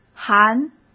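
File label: "含", Mandarin Chinese; hsk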